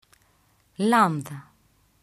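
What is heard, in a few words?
"Lamda", Greek alphabet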